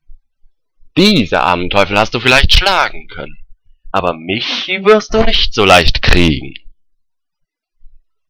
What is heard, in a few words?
German